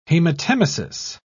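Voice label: "hematemesis", British English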